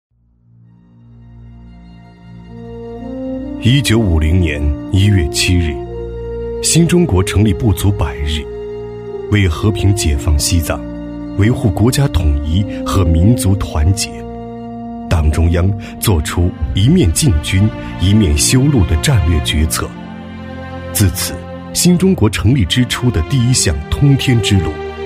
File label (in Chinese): 男 纪录片 历史浑厚讲述; 央视纪录片《康藏公路》; 成熟厚重